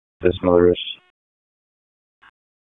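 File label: Police Southwest District Dispatch; Raleigh; wake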